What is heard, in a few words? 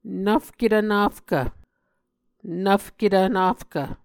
"nvfketv nafkv", Muscogee